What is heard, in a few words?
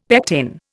"pectin", Indian; Dictionary